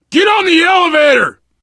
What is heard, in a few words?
world hospital, player survivor voice biker